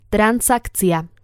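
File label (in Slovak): "transakcia", Slovak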